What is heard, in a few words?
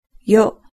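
"yo", Japanese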